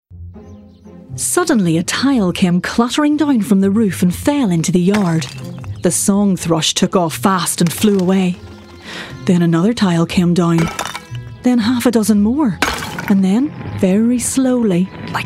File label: voice narrative; georges marvellous medicine